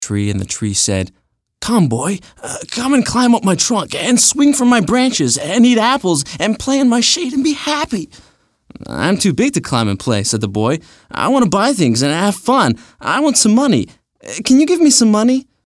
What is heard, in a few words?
Storybook Narration